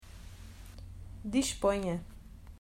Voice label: "Disponha", Portuguese

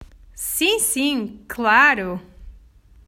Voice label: Sim sim claro, Portuguese

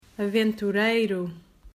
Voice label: "Aventureiro", Portuguese

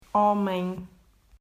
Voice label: "Homem", Portuguese

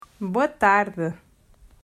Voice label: "Boa Tarde", Portuguese

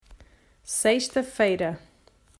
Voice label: "Sexta", Portuguese

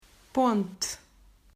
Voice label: O Nasal Pronunciation "Ponte", Portuguese

